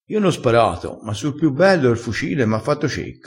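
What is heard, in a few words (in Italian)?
"fa cecca", vocabolario dialettale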